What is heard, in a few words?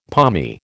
"palmy", American